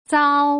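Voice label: "zao", Mandarin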